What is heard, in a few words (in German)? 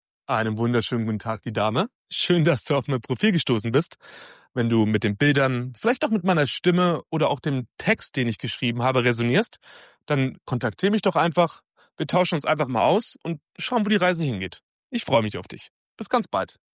Escort Mann Audio Vorstellung